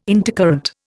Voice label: "intercurrent", Indian